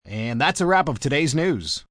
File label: News Wrap M, Broadcast, announcer, wrap-ups, male; greetings/wrap-ups; VoiceBox